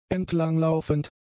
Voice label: "entlanglaufend", German; adjectives